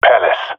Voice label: "palace", male; vo; agents; Source Model Packs; Counter Strike Model Pack; CS2; jungle male epic